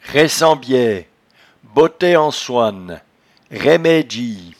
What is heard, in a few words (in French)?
"raissembiaie", patois jura